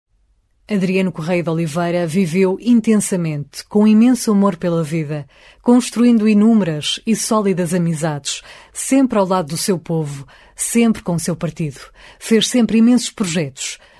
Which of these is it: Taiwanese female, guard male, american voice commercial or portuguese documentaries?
portuguese documentaries